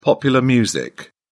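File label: "popular music", British English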